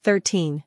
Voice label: "thirteen", voice